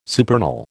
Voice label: "supernal", American

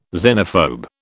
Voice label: "xenophobe", British

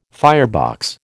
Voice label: "firebox", American

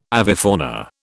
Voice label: "avifauna", British

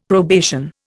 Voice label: "probation", Indian; Dictionary

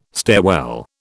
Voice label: "stairwell", British

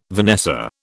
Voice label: "vanessa", British

